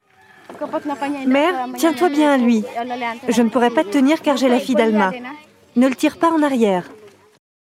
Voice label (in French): Documentaire, voix off documentaire